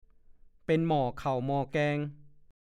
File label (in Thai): "เป็นเลือด", ภาษาโคราช; เป็นประจำเดือน